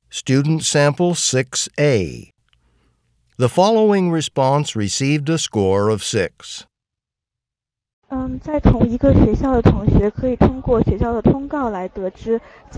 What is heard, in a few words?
chinese conversation; Conversation 6 Sample A (Score of 5)